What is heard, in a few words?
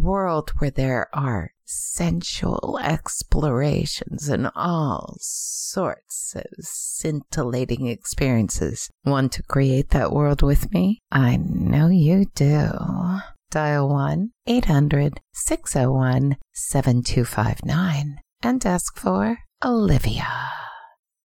Phone Fantasy